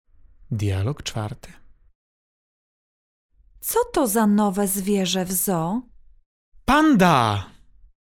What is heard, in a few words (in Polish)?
dialog